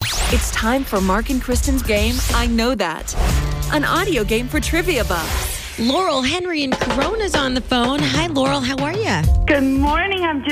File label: SOLO RADIO BREAK CALLER AIRCHECK; KOST 1035fm LA